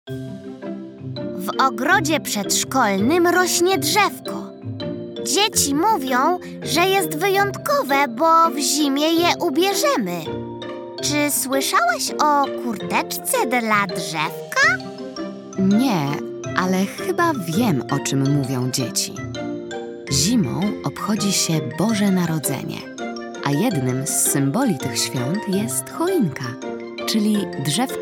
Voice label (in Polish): narracja; czasopismo mis; zima; rozmowa